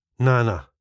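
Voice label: "nana", Scottish English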